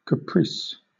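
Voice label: "caprice" English, British accent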